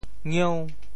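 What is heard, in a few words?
"ngieu5", Teochew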